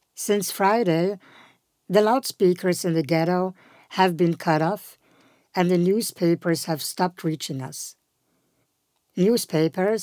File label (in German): englisch; Vitrine; underground showcase